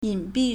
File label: "yin3bi4", Chinese